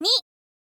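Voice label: "ni", Japanese, girl; voice; info girl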